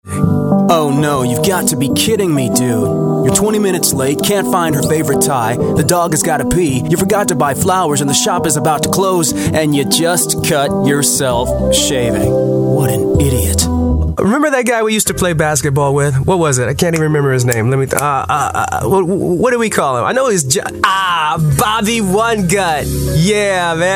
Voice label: ads

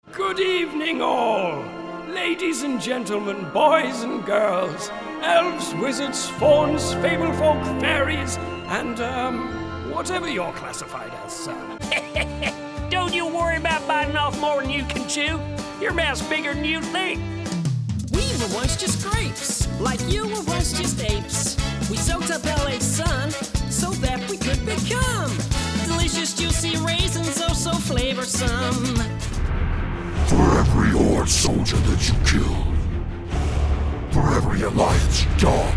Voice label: character voice

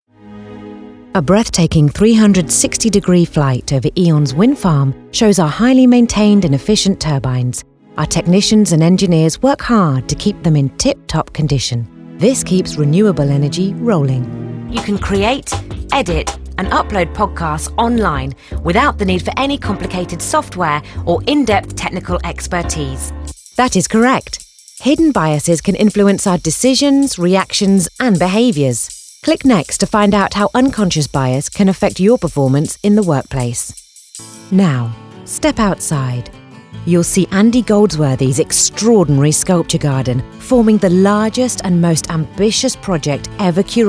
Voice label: narration

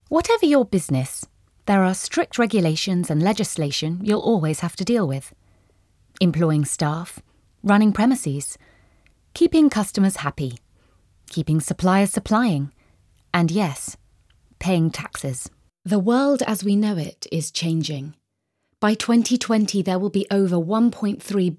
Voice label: corporate reel

